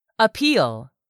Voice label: "appeal", English; 3000 words